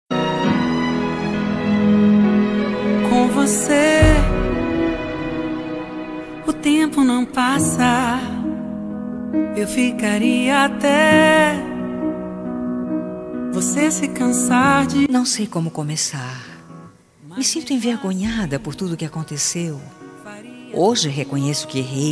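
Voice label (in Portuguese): DESCULPA ROMANTICA female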